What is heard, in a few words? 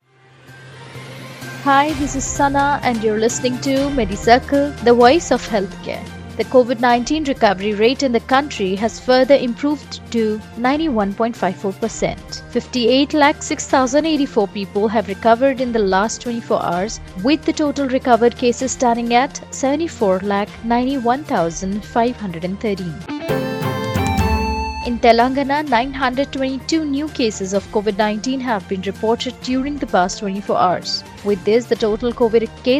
english news